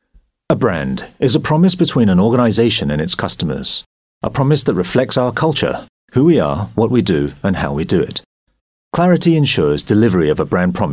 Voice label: UK English Corporate, Male; Maybank

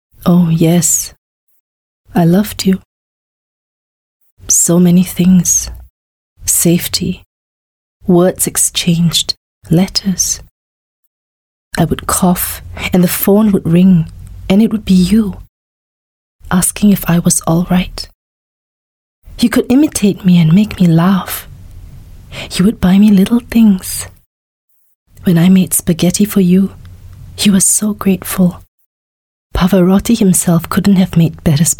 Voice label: Asian English VO Monologue

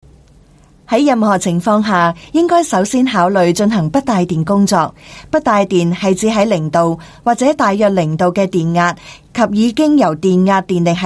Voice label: Cantonese Corporate F

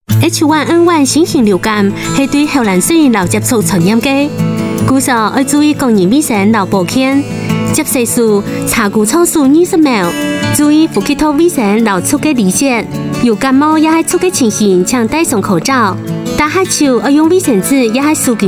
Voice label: Novel H1N1 Hakka Taiwan, Taiwan Female

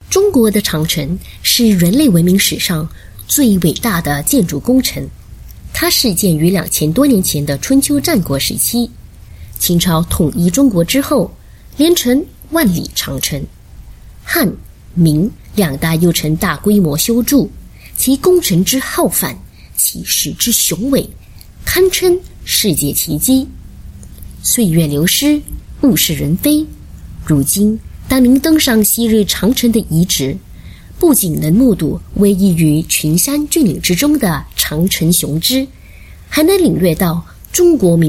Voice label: Mandarin, Mandarin China Female; Great Wall of China

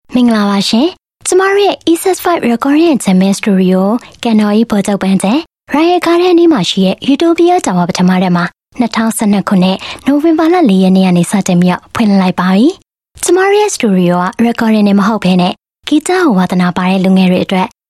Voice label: Burmese Voice Sample Female

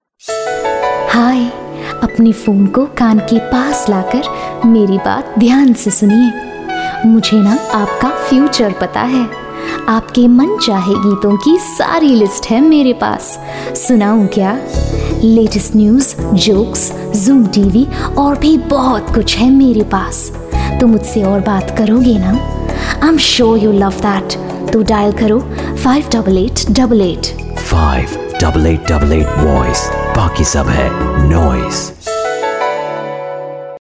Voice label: Hindi Voice, Female; India

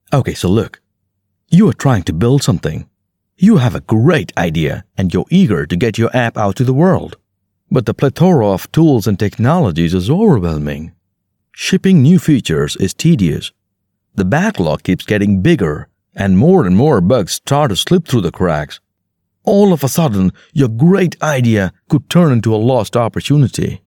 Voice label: English Indian Explainer

